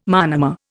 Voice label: "monomer", Indian